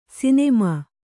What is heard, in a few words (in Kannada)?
"ಸುನಾಮಿ", Kannada